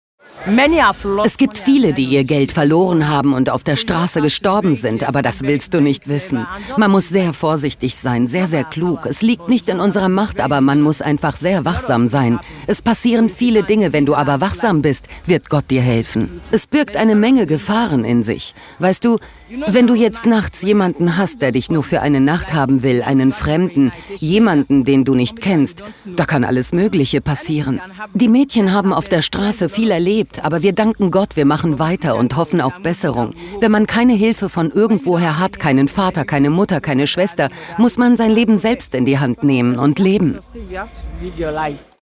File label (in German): Doku Voice Over; Megacity Lagos